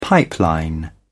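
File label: "pipeline", British English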